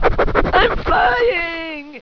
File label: annoyed; war2; humans; gnomish flying machine